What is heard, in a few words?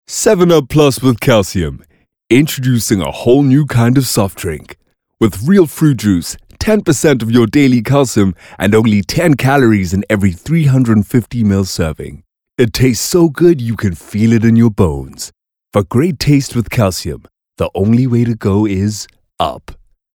English hard sell; OSM Talent